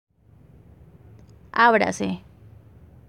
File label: Medellin Slang Expression